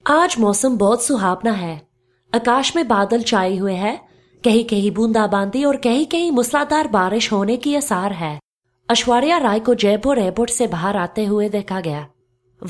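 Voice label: Hindi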